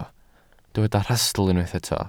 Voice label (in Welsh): "rhastl" Welsh